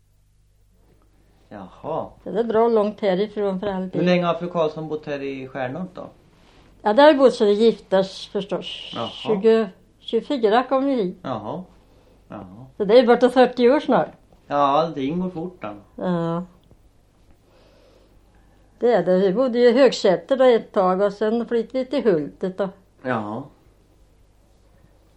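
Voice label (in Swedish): Rappestad Östergötland, dialekter; Folkminnen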